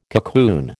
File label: "cocoon", American